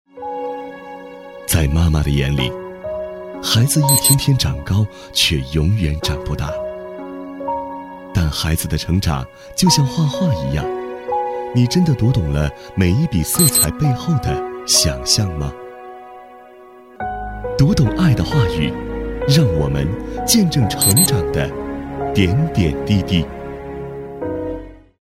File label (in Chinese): 男, 旁白 温暖